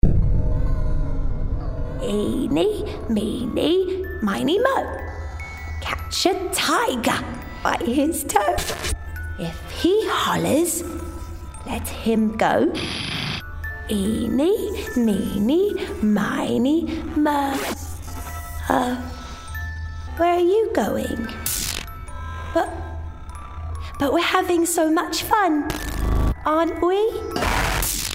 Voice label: Character Little Girl RP